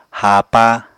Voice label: "haapa", Apatani